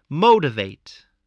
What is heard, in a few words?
"motivate", voice, spelling